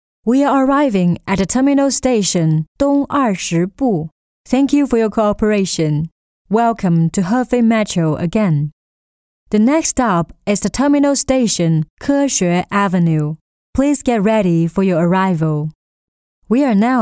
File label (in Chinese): 英语女 地铁英文播报; 合肥